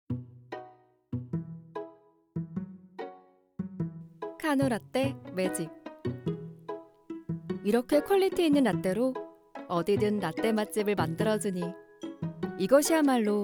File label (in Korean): 광고 자연스러운 부드러운; 카누라떼